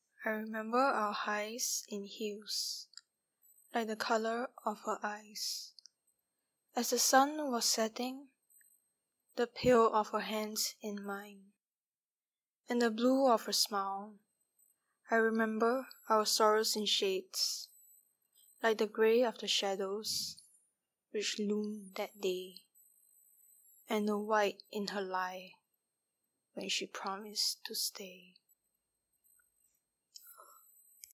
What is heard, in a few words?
Narration